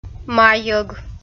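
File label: "майӧг", Komi, female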